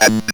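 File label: ROBVOX, robot voice; E-Lab; X Static Goldmine 1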